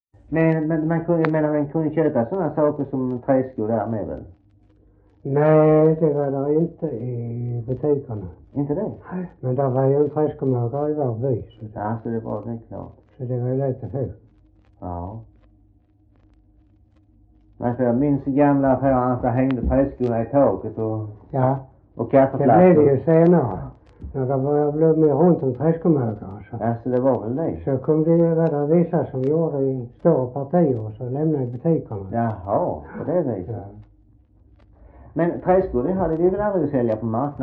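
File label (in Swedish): Röstånga Skåne, Svenska sagor dialekter; Folkminnen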